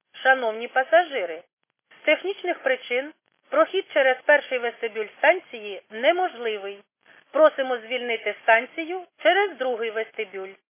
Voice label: khs st female, subway station